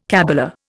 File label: "cabala", Indian